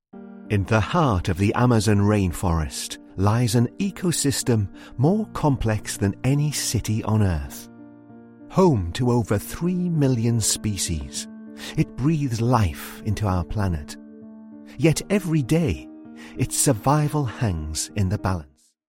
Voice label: narration, Englisch - England, Männlich Mittleres Alter